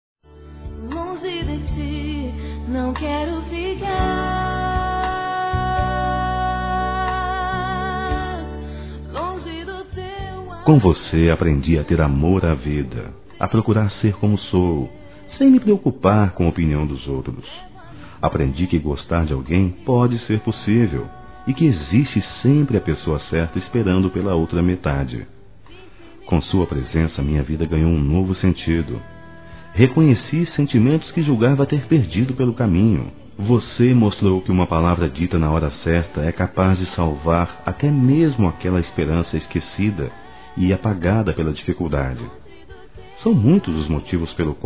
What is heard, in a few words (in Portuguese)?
ROMANTICA (m); ANIV. Evangelico